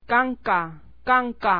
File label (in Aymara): "kanka", Aymara